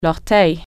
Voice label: "toe", French

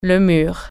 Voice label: "wall", French

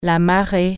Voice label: "tide", French

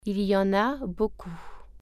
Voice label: there are many, French

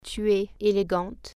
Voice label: you are handsome female, French

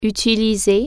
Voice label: "use", French

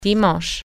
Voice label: "sunday", French

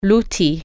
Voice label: "tool", French

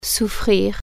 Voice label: "suffer", French

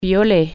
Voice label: "violet", French

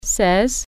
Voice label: "sixteen", French

